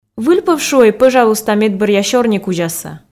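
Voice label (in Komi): "выль пӧв шуӧй пӧжалуйста медбӧръя сёрникузясӧ", Komi, female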